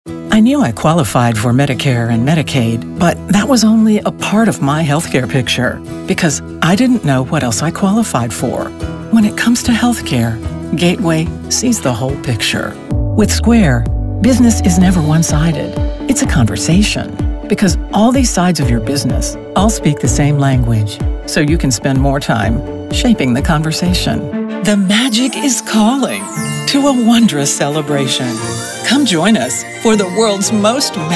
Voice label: vo commercial